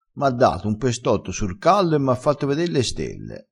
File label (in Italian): "pestotto", crespinese